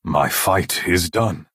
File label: male concede; Breton